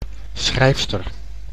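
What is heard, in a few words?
"schrijfster", Dutch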